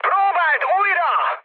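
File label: Hungarian bomb failure mood high, speech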